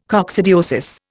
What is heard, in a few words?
"coccidiosis", Indian